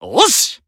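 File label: Voice Emotion Glad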